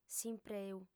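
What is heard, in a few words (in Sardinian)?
"s'impreu", female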